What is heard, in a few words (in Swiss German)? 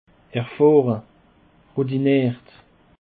"erfahre", alsace